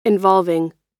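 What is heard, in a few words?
"involving", US English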